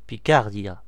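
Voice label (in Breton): "picardie", Breton; france region; gcompris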